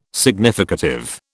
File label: "significative", British